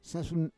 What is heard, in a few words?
"sasun", Roon